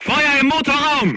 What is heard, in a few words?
voice message fire in tank, crew tanks german